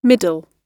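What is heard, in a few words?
"middle" spoken, British English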